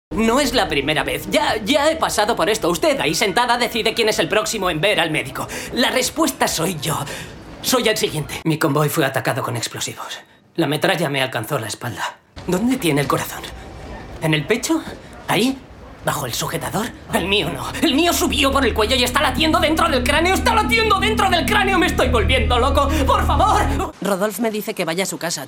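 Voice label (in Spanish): doblaje España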